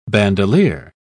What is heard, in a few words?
"bandolier", pronounce